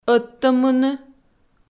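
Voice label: "ӧдтӧммыны", Komi, female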